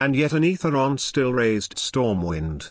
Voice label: Male Night Elf; Kurtrus; Scabbs